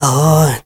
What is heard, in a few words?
ZULU MALE; Spectrasonics; Heart of Africa 2; S.MALEDRY